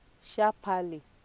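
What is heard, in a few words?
"sharpaly", Nigerian Pidgin, female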